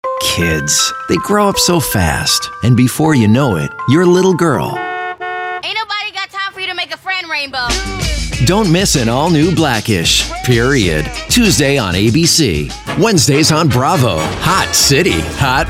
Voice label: commercial men

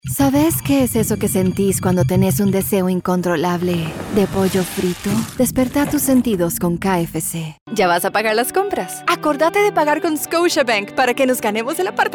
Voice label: languages women spanish commercial

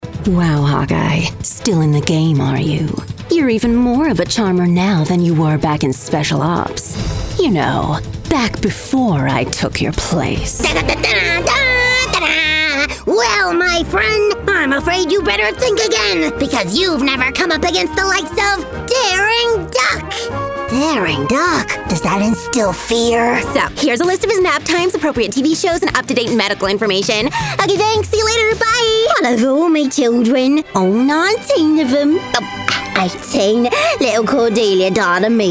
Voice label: animation women

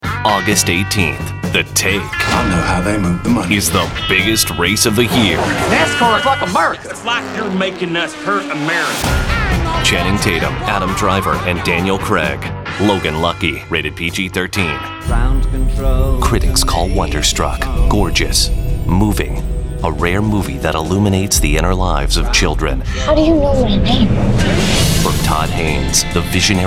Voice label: trailer male

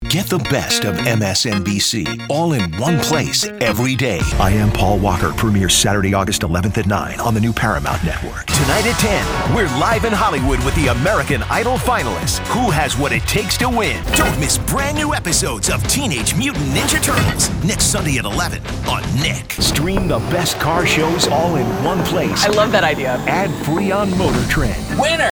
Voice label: promo light men

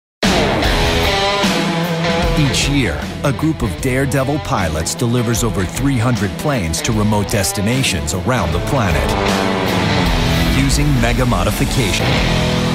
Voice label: art narration

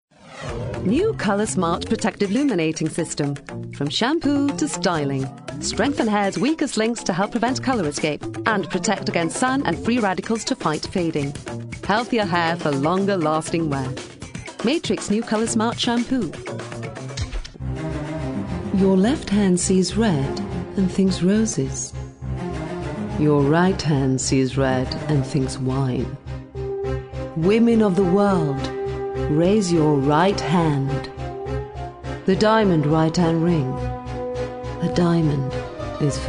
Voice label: languages female commercial

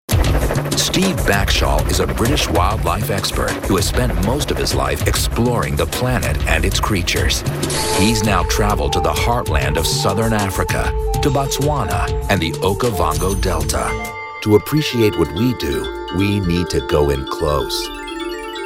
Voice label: narration

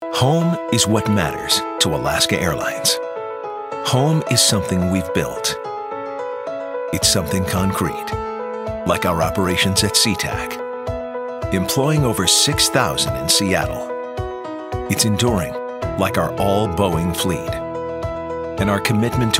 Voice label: narration corporate men

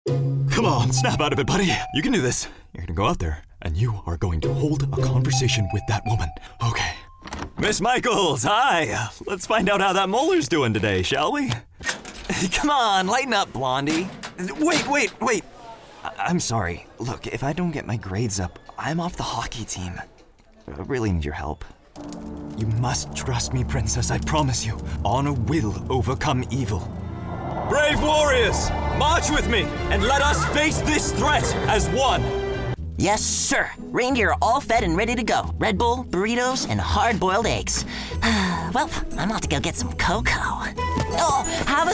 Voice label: animation men character

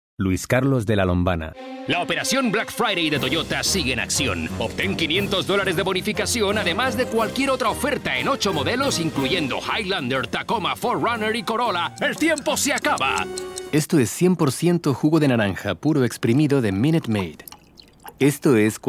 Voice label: languages men spanish

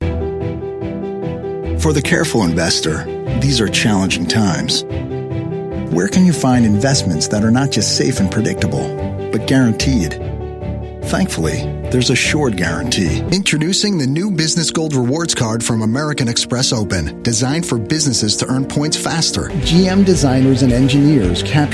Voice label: commercial, men

